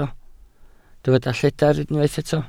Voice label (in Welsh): "lledr", Welsh